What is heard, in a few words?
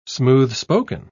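"smooth-spoken", British English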